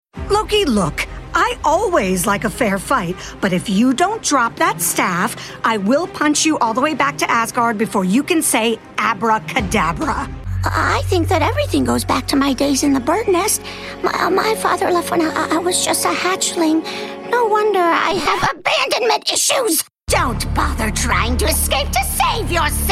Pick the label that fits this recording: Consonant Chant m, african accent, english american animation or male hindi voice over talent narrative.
english american animation